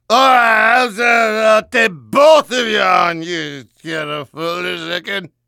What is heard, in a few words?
gibberish; demoman